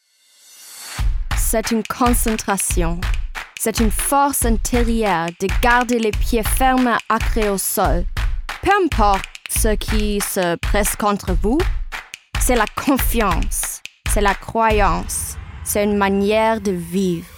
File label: French; Nike